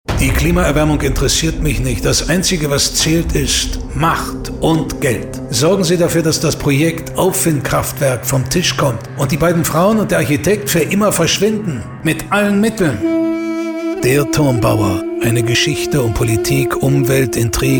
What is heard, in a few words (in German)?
Radiowerbung; Turmbauer